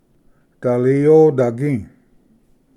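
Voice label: "daliyodagi", Cherokee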